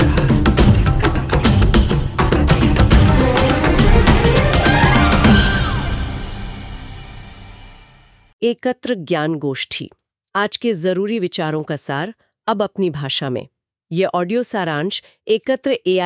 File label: Audio the forest passage hindi